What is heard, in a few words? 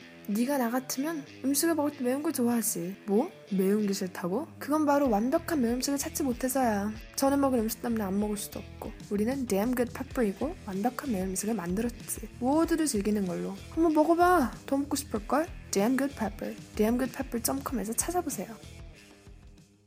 commercial ko